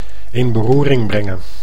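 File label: "in beroering brengen", Dutch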